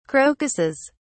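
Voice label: "crocuses", British English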